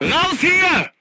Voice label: voice message leave vehicle, crew tanks german